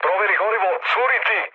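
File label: Serbian tank damaged mood med; War Thunder; speech srb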